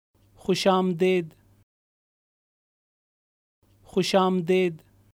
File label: "Welcome", Urdu